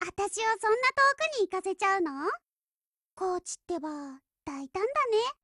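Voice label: OPERATION BEGIN, Japanese, Voice; Lusa 0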